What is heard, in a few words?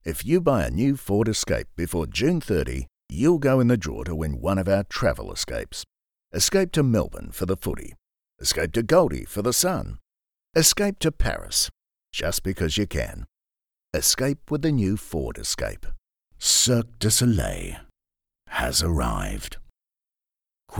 Medium sell Guy